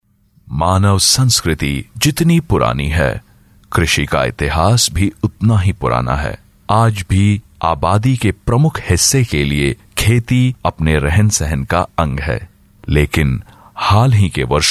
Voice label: Male Hindi VO Sample

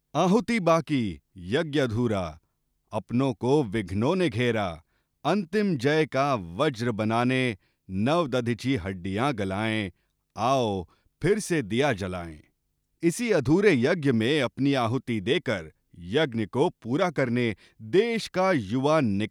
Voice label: Male Hindi VO Sample

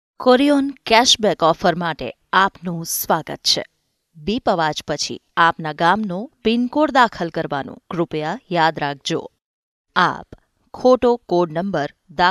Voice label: Female Gujarati VO

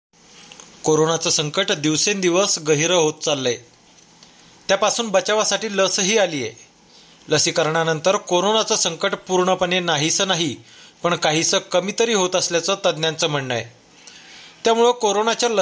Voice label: Marathi VO

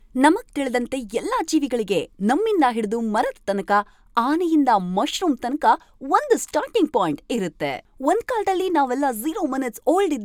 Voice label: Female Kannada VO Sample

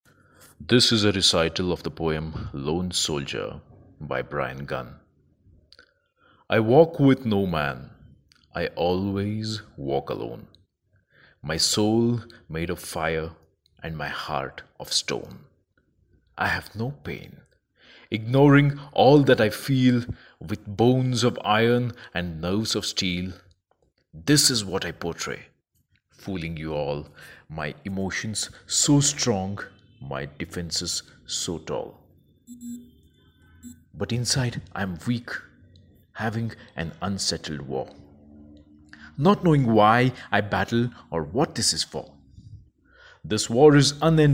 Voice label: Male English VO

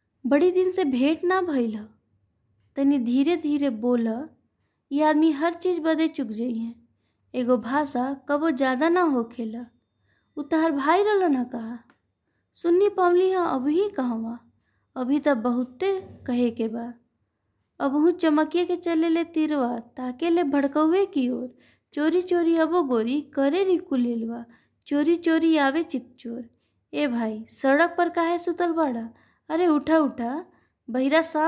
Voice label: Bhojpuri

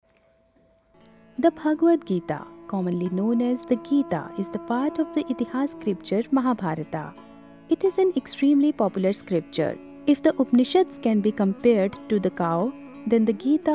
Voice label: Female English VO Narration

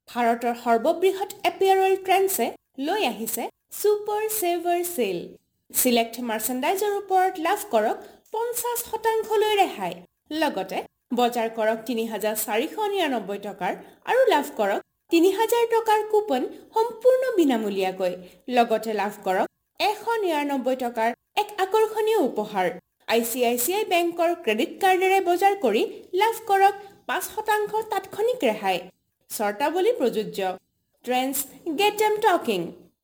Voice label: Assamese

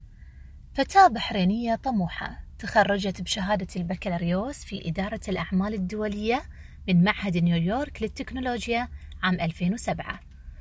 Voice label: Female Arabic VO

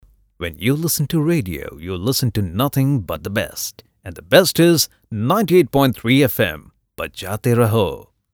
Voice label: Male English VO Sample Ad

